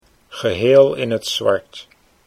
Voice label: "geheel zwart", Dutch